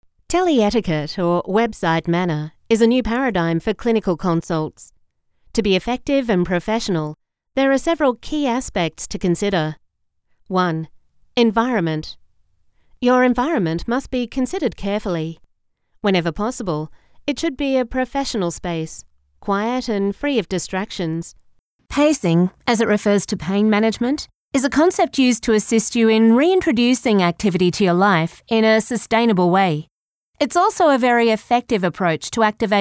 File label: Medical Narration